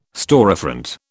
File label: "storefront", British